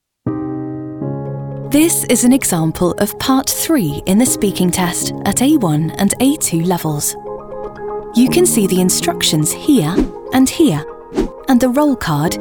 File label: eLearning; Reel 2023